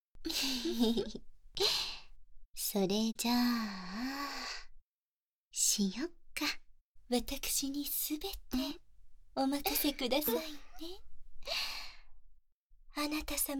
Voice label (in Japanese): 乳首舐め×2+フェラ、耳舐め+アナル舐め+本番、本番+乳首舐めカウントダウン射精…お掃除フェラ×2（バイノーラルマイク 効果; 効果音あり; 4P; 天使×2と悪魔の乳首舐め×2+フェラ、耳舐め+アナル舐め+本番、本番+乳首舐めカウントダウン射精…お掃除フェラ×2